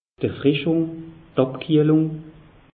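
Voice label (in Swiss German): "erfrischung", Alsatian; meteo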